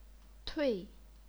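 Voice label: "tui", chinese pinyin, fourth tone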